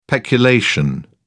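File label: "peculation", English, British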